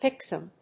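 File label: "picksome", English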